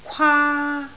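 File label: "kwaa1", Cantonese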